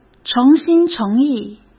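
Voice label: "诚心诚意", Mandarin Chinese; chinese-flashcards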